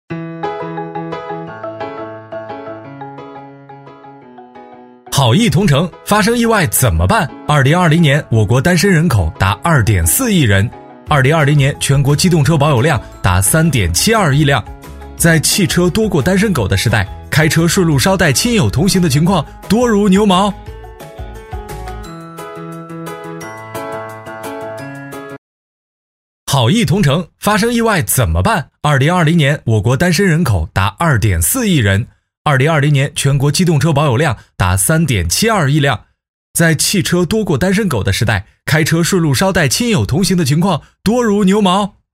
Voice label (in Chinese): 轻松愉快活力; 同城旅行